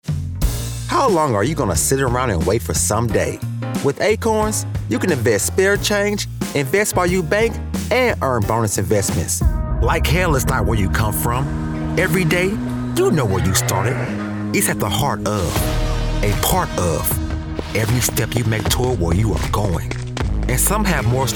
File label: Commercial